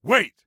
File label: Respond Wait, Soldier Male; Soldier Male 03